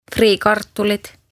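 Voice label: "friikartulid", Estonian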